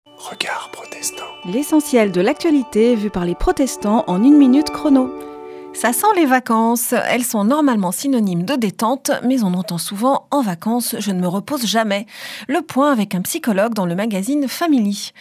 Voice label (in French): Spot radio; Regards protestants